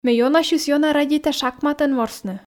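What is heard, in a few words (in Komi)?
ме ёнасьыс-ёна радейта шахматӧн ворсны, Komi, female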